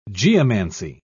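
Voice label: "geomancy", British English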